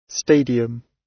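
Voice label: "stadium", British English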